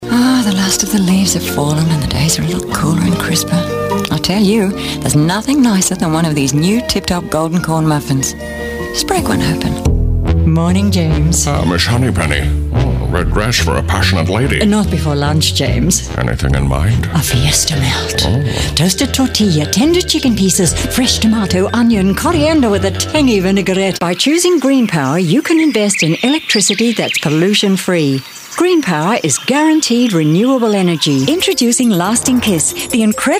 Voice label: neutral